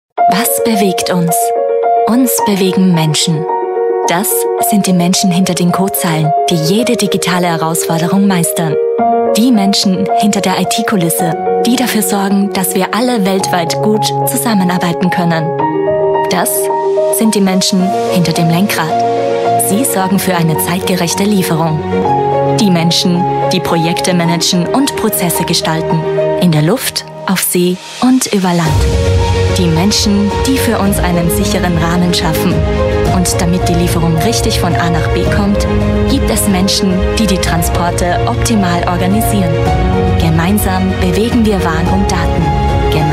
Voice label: german voice over female narrator

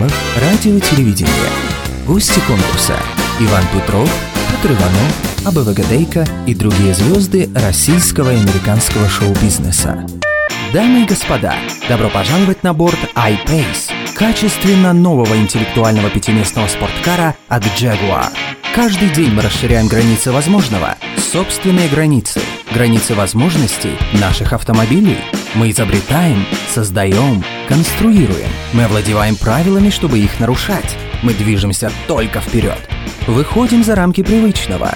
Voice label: russian voice over male commercial

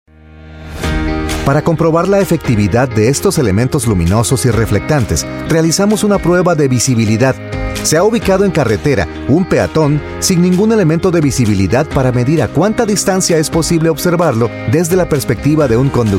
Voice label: spanish voice over male elearning

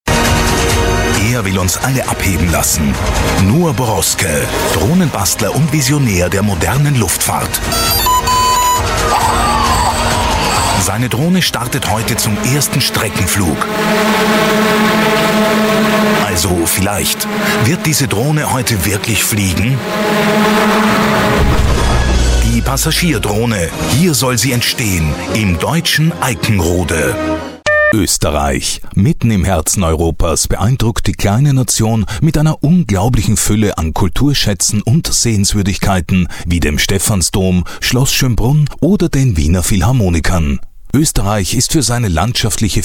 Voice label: german voice over male documentary

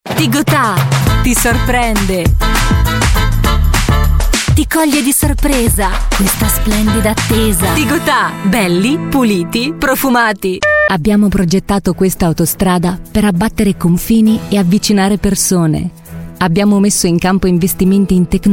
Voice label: italian voice over female commercial

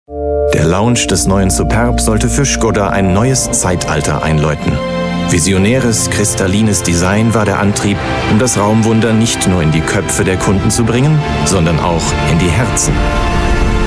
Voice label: german voice over male narrator

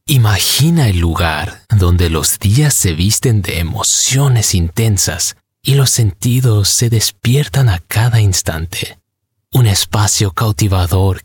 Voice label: spanish voice over male narrator

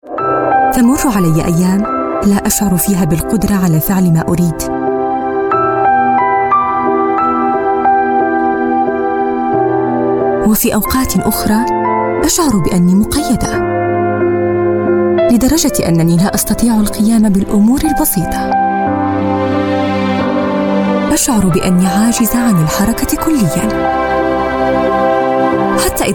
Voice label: arabic voice over female narrator

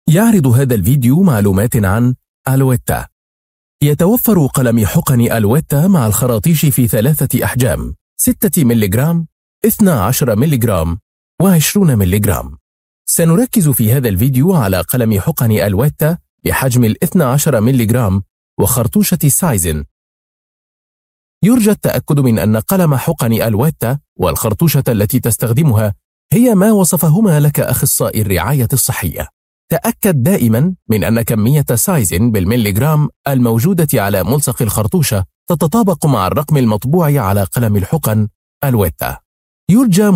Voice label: arabic voice over male elearning

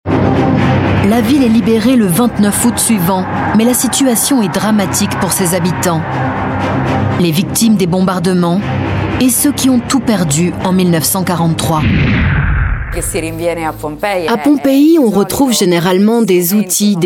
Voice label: french voice over female documentary

